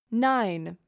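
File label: "Nine", female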